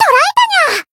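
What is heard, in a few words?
finish, Japanese; nekoko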